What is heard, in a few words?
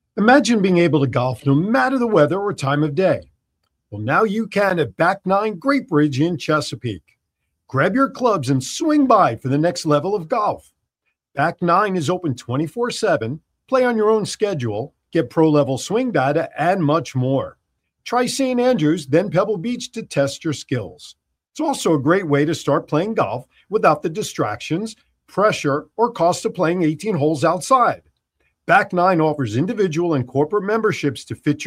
VOICEOVER; BACK 9